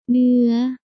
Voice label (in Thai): "เนื้อ", Thai